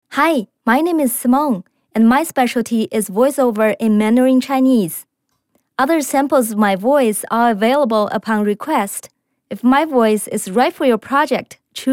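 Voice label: Chinese, English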